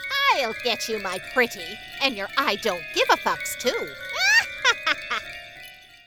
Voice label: Witch; en-NZ